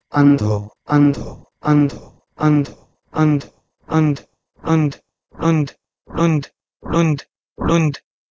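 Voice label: Proto-Indo-European h2endho to Pashto rund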